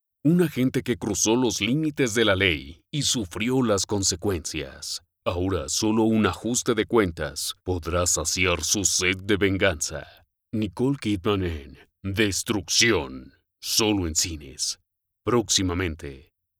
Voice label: Spanish Mexican movie trailers